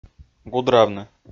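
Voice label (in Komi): "гудравны", Komi, male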